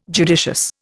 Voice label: "judicious", Indian